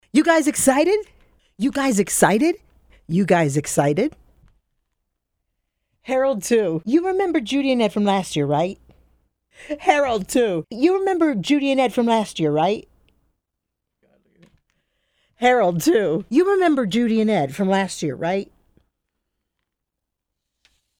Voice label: Female Urban Music Festival